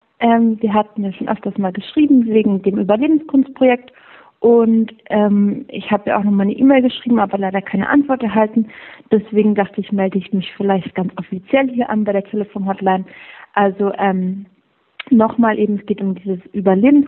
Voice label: Voicemail